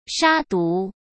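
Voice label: "杀毒", Mandarin Chinese; hsk; chinese-flashcards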